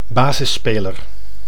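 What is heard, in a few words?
"basisspeler", Dutch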